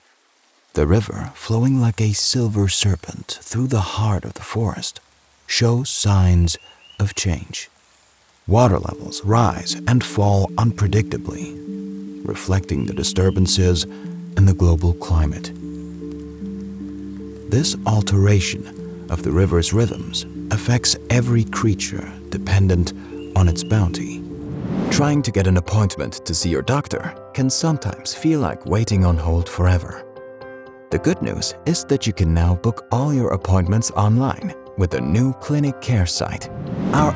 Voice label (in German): Englisch